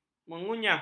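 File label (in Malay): "mengunyah", Malay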